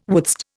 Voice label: "wouldst", Indian